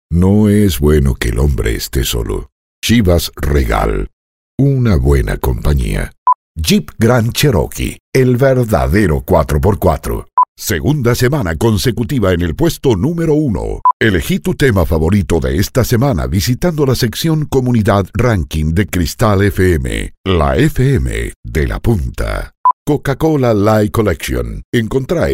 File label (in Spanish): locucion